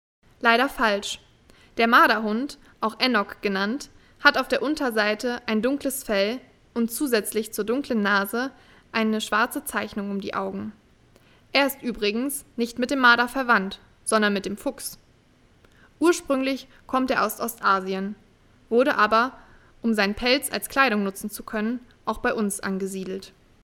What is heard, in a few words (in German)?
Erklaertext Marderhund; wildtierkamera; kinder